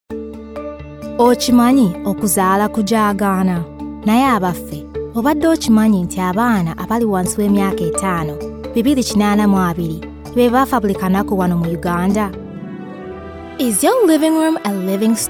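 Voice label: Luganda, Commercial; GoLocalise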